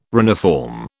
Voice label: "reniform", British

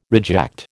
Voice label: "reject", American

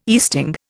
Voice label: "easting", Indian

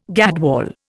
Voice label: "gadwall", Indian

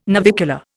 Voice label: "navicular", Indian

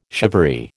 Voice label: "shivaree", American

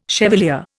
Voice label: "chevalier", Indian

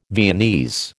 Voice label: "Viennese", American

